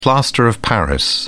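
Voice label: "plaster of paris", British English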